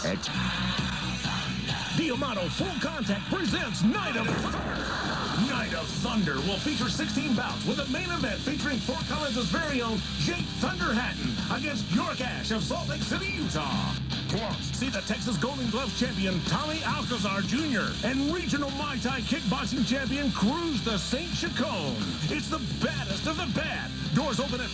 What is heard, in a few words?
radioad; millennium fighter